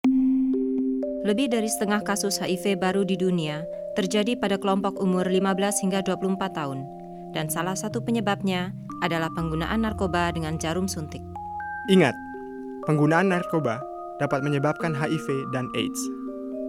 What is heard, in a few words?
spot indonesian music